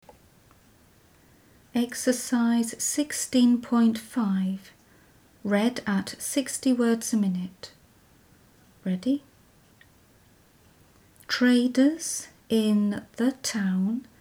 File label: 60wpm; Teeline